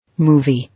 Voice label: "Movie", English

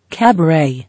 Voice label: "Cabaret", English

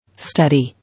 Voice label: "Study", English

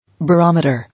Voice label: "Barometer", English

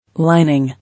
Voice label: "Lining", English

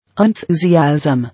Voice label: "Enthusiasm", English

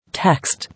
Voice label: "Text", English

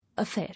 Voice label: "Affair", English

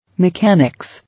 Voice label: "Mechanics", English

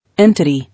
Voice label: "Entity", English

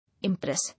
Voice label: "Impress", English

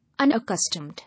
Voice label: "Unaccustomed", English

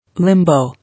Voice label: "Limbo", English

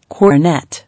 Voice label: "Cornet", English

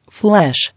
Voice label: "Flesh", English

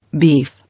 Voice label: "Beef", English

